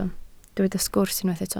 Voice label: "sgwrs", Welsh

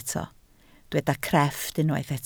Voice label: "crefft", Welsh

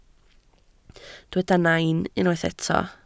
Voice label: "nain", Welsh

